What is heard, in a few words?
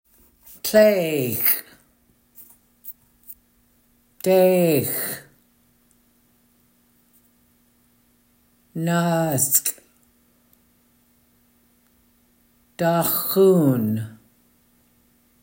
Counting in Lingit